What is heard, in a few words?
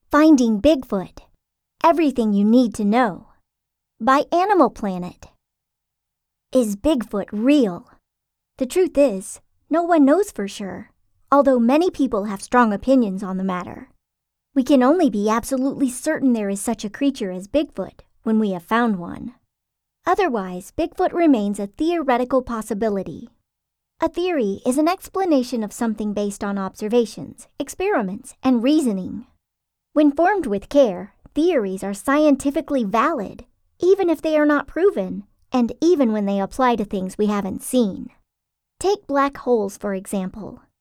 Childrens Non-Fiction